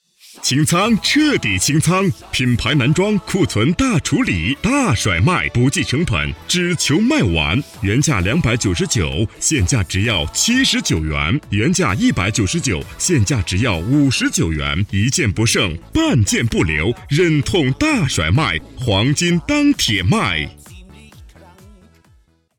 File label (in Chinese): 激情促销清仓大处理